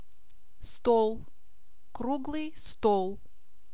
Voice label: how to say table in russian